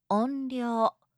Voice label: "onryo", japanische

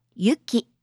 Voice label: "yuki", japanische maedchennamen

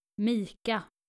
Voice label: "mika", nordische jungennamen

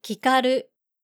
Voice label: "kikaru", japanische jungennamen

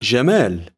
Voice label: "djamal", arabische jungennamen

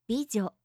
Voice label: "bijo", japanische maedchennamen

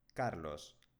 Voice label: "carlos", spanische jungennamen

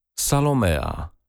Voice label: "salomea", polnische maedchennamen

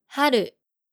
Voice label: "haru", japanische jungennamen

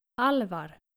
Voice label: "alvar", nordische jungennamen

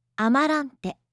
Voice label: "amarante", japanische maedchennamen

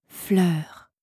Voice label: "fleur", franzoesische maedchennamen

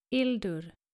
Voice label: "hildur", nordische jungennamen

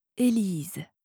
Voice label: "elise", franzoesische maedchennamen

